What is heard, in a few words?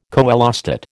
"coelostat", American